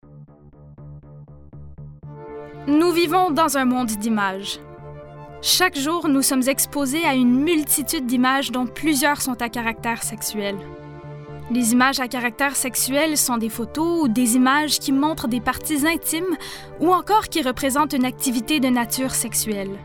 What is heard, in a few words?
E-Learning FR